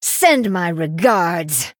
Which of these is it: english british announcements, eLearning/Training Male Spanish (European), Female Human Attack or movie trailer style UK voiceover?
Female Human Attack